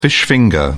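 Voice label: "fish finger", British English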